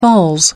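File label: "falls", English, British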